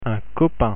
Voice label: "copain", French pronunciation

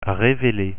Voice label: "reveler", French pronunciation

